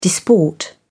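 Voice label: "disport", British English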